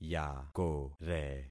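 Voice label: "yakore", concatenated syllables; Affixing experiment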